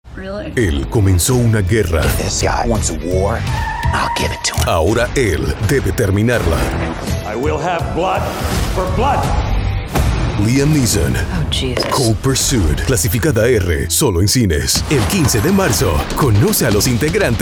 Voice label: Spanish Movie Trailers

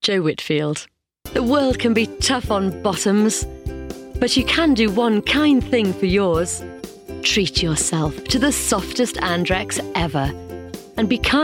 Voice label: general commercials, links, promos

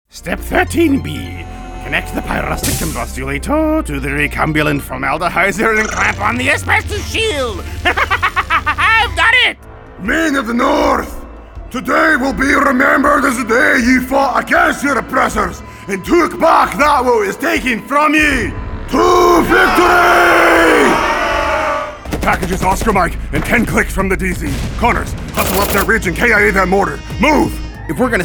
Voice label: Animation

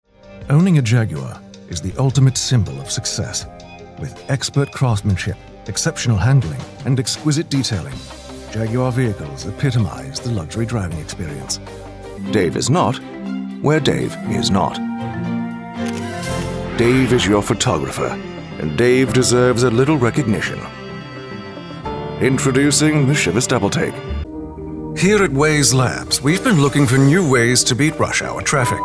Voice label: Commercial UK

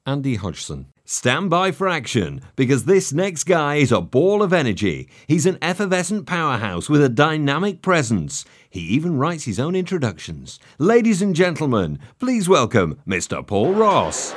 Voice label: Voice of God

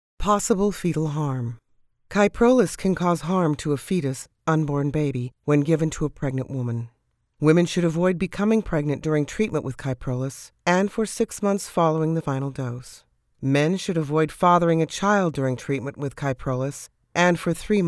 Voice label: medical elearning

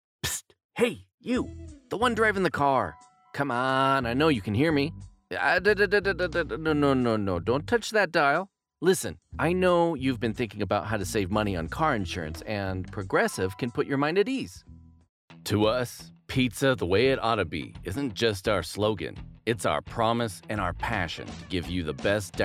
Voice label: Radio Commercial

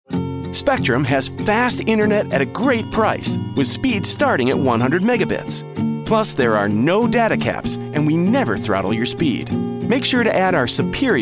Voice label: corporate

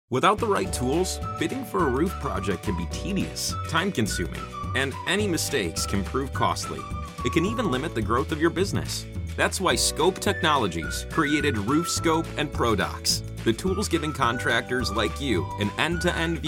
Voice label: Explainer Video

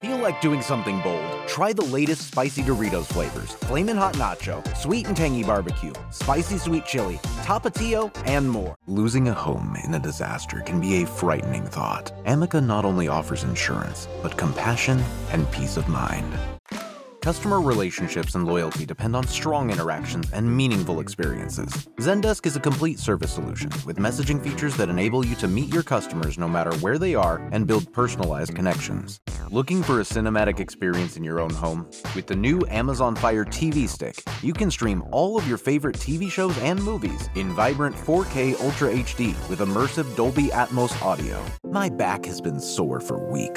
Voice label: Commercial

